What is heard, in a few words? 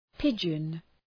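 "pigeon", English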